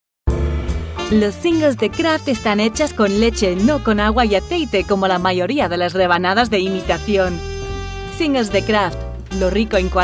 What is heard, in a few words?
Spanish; Kraft